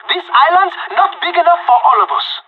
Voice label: on a roll brag, pirate

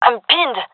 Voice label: pinned, gendarmerie fem epic; vo; agents

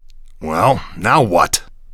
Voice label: idle, male; vo npc; Half Life 2; Episode 2

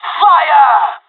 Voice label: molotov, pirate; Counter Strike Model Pack; CS:GO

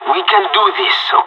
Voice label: pep talk, pirate; Counter Strike Model Pack; CS:GO

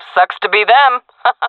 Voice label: round won, jungle fem; vo; Counter Strike Model Pack; CS:GO

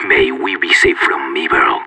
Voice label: radio lock n load; Counter Strike; CS:GO; separatist

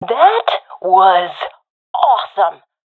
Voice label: round winning, professional fem; Counter Strike Model Pack; CS:GO

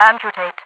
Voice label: amputate, radio voice; Half Life 2

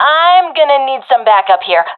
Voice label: request backup, professional fem; vo; Counter Strike Model Pack; CS:GO

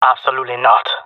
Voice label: disagree, jungle male; agents

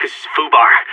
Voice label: scared emote; Source Model Packs; Counter Strike Model Pack; CS2; seal

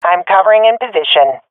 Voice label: in position, swat fem; vo; agents; Source Model Packs; Counter Strike Model Pack; CS2

